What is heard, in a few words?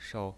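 "shou", Mandarin Chinese pinyin, neutral tone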